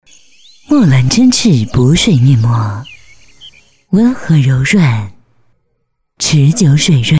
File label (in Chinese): 女 广告 磁性大气; 莫兰真挚补水面膜